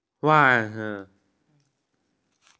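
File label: "socks", Mandarin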